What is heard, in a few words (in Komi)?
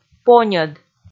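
"поньӧд", Komi, female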